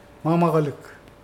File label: grandfather, Mokilese